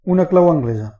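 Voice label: wrench adjustable desc, Catalan; tuxpaint-stamps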